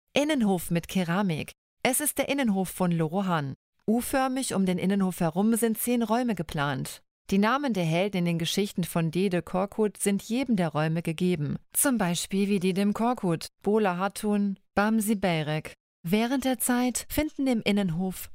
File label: deutsch